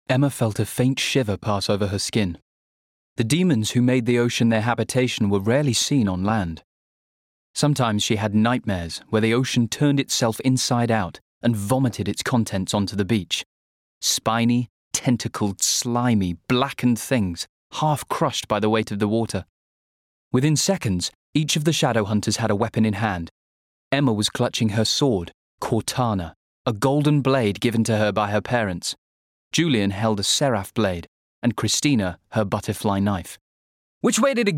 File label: narrative